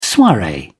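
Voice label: "soiree", English, British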